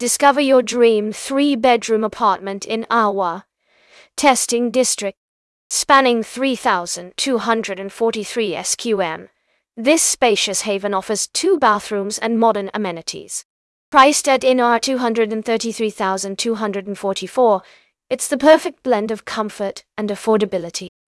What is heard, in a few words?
narration audio